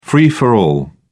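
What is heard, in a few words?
"free for all", British English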